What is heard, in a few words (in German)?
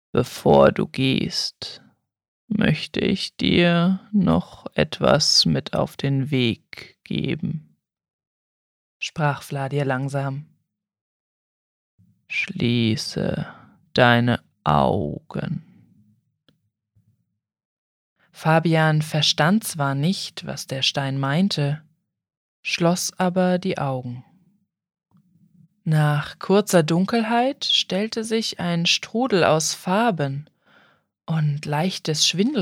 Fantasy, hoerbuchsprecherinnen